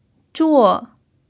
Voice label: "zhuo4", Chinese

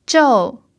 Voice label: "zhou4", Chinese

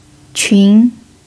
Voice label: "qun2", Chinese

